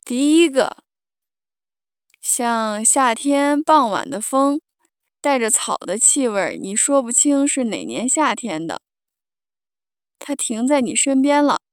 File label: narrator samples